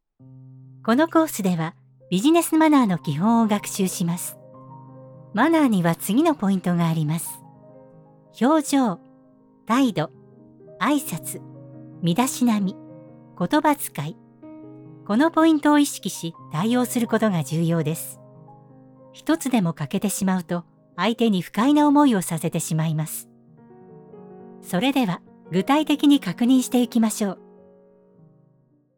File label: japanese e learning